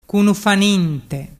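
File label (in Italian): "cunufaninte", Vernazzese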